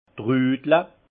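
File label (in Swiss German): "trudle", alsace Haut-Rhin; vin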